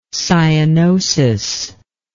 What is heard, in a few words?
"cyanotic", english